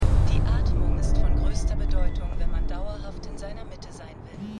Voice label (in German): Sprecherin; The Flight Attendant